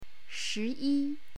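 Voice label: "shi2yi1", Chinese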